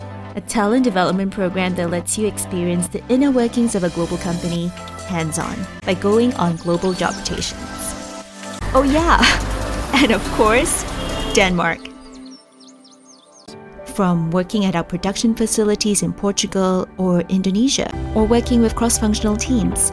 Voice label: Company Video VO, Female VO; Testimonial - Casual, Easygoing

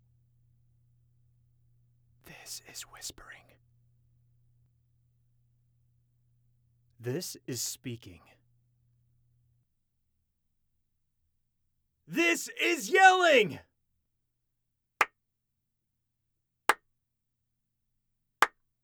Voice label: Home Studio Raw Audio Sample

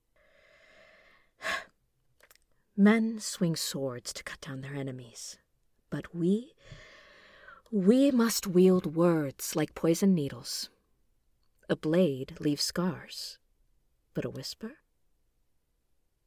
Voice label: Queen Sample Lines, Voice Actress

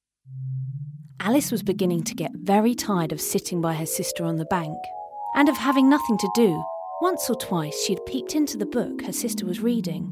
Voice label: Audiobook, Professional Voiceover Artist

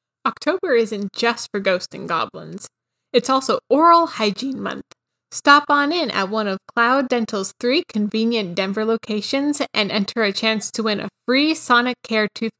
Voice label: Dental, Professional Female Voice Over Talent; Cloud Dental

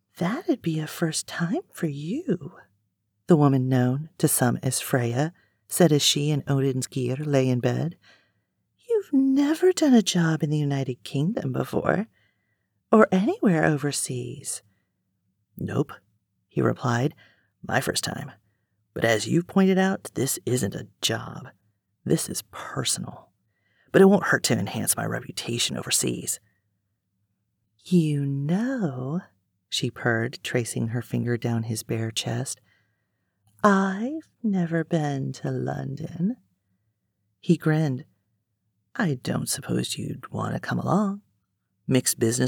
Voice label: MF Dialogue Villains Sultry

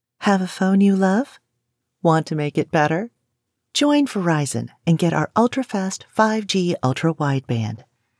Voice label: Friendly quick spot

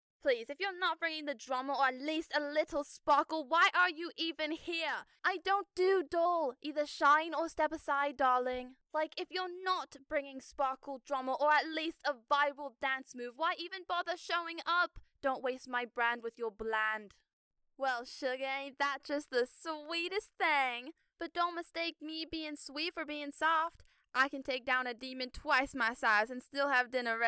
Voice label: accents

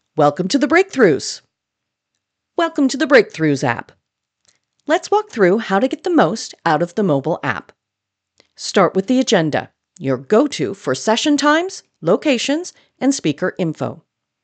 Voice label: Corporate Training Video

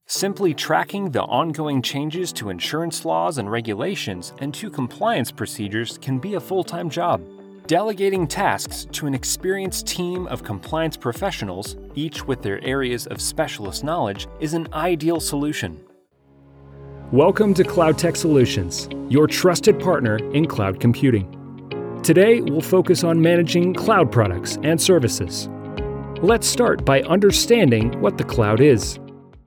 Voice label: E Learning

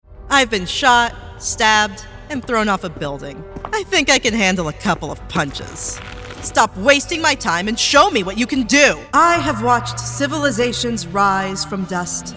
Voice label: Animation

